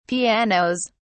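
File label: "pianos", British English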